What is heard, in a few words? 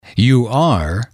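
"you are informal permanent", English, male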